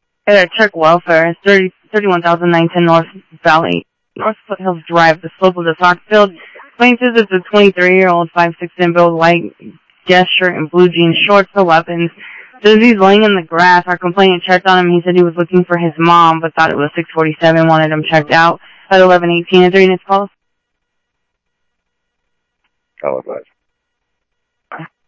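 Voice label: police department, scanner audio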